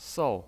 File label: "sou4", pinyin